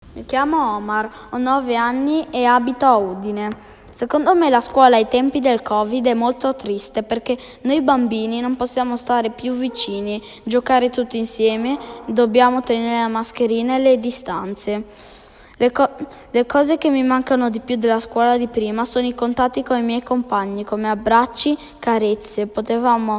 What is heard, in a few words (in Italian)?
Udine, friuli venezia giulia, 9 anni